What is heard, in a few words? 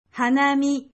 "Hanami", Japanese